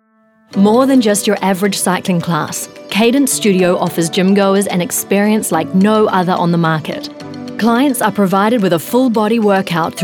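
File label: New Zealand phone voice, female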